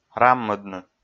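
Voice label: "раммӧдны", Komi, male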